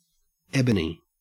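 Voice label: "ebony", Australian English; pronunciation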